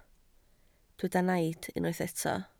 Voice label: "naid", Welsh

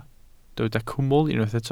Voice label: "cwmwl" Welsh

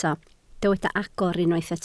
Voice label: "agor", Welsh

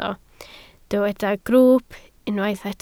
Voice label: "grwwp" Welsh

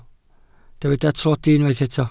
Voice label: "tlodi", Welsh

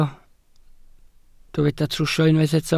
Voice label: "trwsio", Welsh

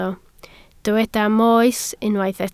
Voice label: "moes" Welsh

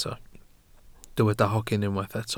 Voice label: "hogyn" Welsh

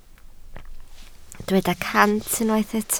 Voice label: "cant", Welsh

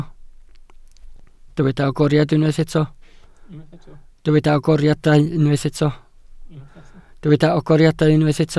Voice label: "agoriadau", Welsh

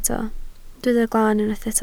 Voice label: "glan", Welsh

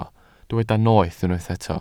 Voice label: "noeth" Welsh

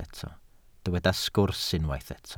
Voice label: "sgwrs" Welsh

